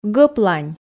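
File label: "гӧплань", Komi, female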